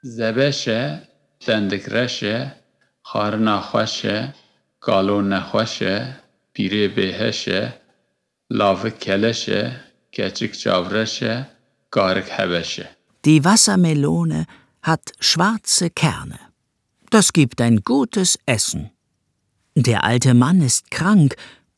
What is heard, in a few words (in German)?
Kurdisch, Kinderverse